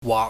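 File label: "waak", Cantonese, low level tone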